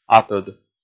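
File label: "атӧд", Komi, male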